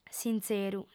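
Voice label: "sintzeru", female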